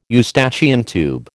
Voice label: "Eustachian tube", American

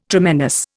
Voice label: "tremendous", Indian

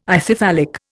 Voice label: "Ithyphallic", Indian

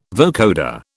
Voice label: "vocoder", British

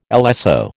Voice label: "LSO", American

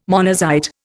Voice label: "monazite", Indian

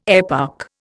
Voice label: "eparch", Indian

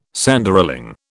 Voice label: "Sanderling", British

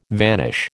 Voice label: "vanish", American

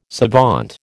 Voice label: "savant", American

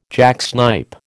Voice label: "jacksnipe", American

